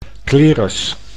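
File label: "clerus", Dutch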